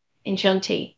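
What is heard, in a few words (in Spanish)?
Voice Acting es F, Spanish, female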